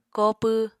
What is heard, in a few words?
"kopw", Apatani